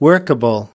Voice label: "workable", us

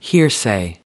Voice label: "hearsay", us

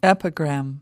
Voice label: "epigram", us

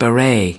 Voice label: "beret", us

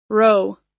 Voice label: "row", us

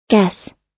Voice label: "guess", us

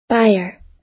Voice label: "buyer" US

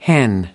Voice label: "hen", us

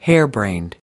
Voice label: "harebrained", US

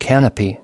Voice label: "canopy", us

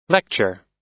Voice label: "lecture", us

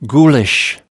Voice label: "ghoulish", us

